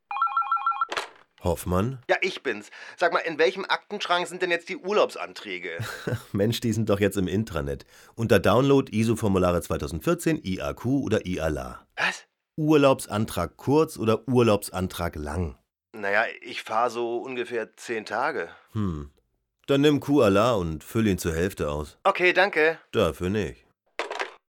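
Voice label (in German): telefonat bürokollegen; buerokollegen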